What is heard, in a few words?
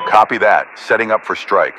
Radio pilot Wingman Engage Strike